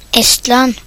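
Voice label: "Estonia", Norwegian; gcompris; geography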